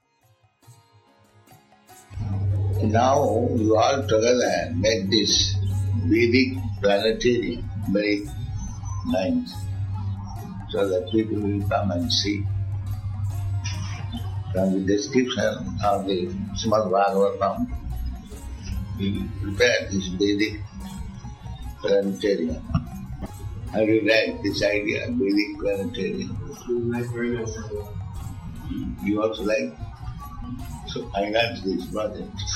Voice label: Conversation; Detroit; srilaprabhupada